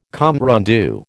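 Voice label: "compte rendu", American English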